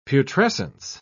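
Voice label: "putrescence", British English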